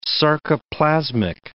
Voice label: "sarcoplasmic", English